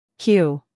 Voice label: "q", US; sounds-of-american-english; everyone-can-use-english